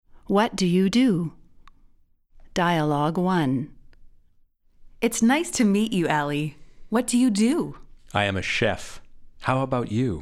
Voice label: Dialogue slow